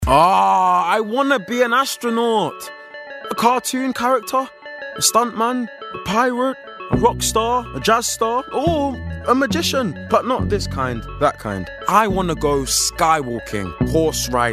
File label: Commercial